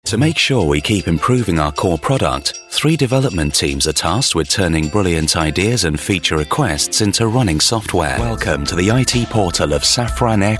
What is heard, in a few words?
narrative